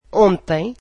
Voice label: portuguese yesterday